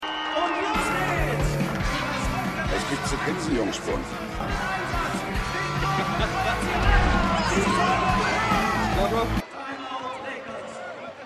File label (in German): Stadionsprecher; Winning Time